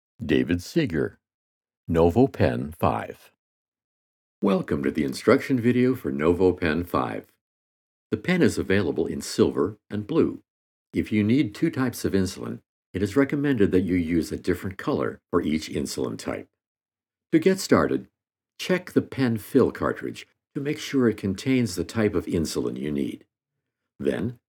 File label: INSTRUCTIONAL